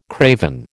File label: "craven", American